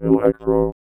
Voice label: VOICEFX ELCTR 140; Techno2

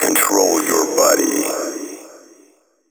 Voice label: techno male 140; Vocals

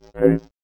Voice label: VOICEFX ELCTR 140; Techno2

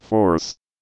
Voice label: VOICEFX ELCTR 140; Techno2

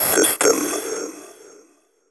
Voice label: techno male 140; Vocals

